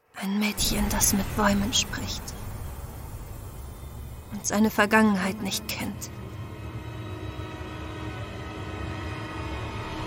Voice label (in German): Klappentext Trailer; Gilde der Iris